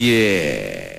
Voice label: "yeah" medium

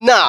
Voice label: "nah", male; expletives

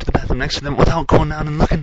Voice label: gibbrish; Voice

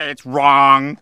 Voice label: "it's wrong", Voice; expletives

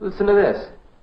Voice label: listen to this male; Voice; introductions

